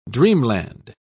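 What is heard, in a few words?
"dreamland", British English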